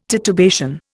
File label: "titubation", Indian